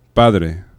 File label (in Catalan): "padre", aproximants; laboratori fonetica